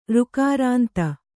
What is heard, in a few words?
"ಋಕಾರಾಂತ", kannada